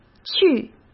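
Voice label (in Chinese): "去", Mandarin Chinese; chinese flashcards; hsk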